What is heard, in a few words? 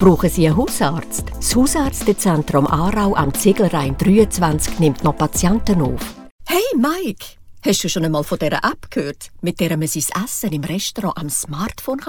Swiss German Corporate; Demoreel